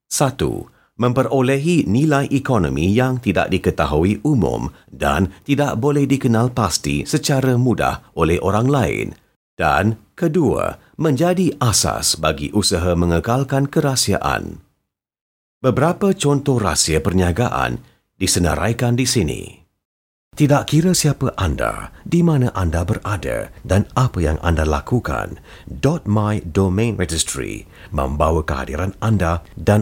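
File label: Malay general; GoLocalise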